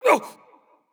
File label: pain